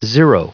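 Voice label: "zero", US English, recite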